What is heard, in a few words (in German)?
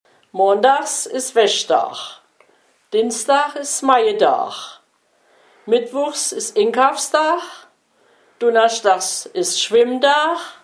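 wochenplan, Hunsrück; Unzenberg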